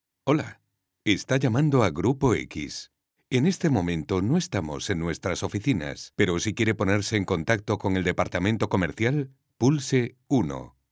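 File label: spanish ivr, Male; professional Spanish voice actor since 1990; Dubbing actor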